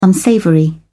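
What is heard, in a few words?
"unsavoury", English, British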